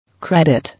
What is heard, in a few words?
"Credit", English